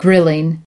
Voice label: "grilling", us